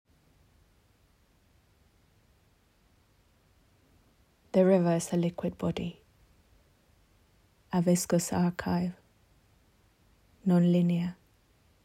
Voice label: poem